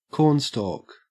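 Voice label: "cornstalk", Australian English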